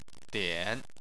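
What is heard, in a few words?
"dian" third tone, Chinese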